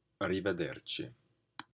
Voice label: Goodbye Italian